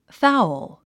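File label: "fowl", 英単語 発音; fául ファウル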